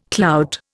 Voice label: "clout", Indian

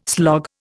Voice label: "slog", Indian

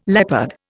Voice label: "leopard", Indian

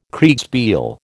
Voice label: "Kriegspiel", American

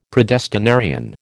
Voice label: "predestinarian", American

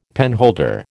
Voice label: "penholder", American

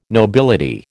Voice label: "nobility", American

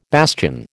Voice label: "bastion", American

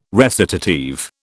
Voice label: "recitative", British

